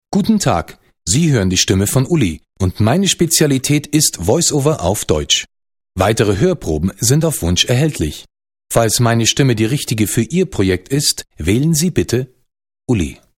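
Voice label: German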